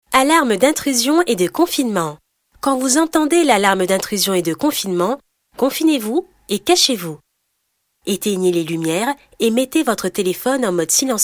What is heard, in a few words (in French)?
Motion design ton de la démo explicatif acceuillant souriant; CAMERON FRANCE